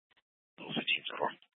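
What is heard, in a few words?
Police Department North Dispatch, scanner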